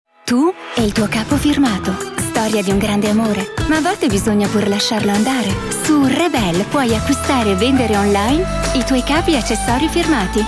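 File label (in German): Italian, Freundlich; Fremdtake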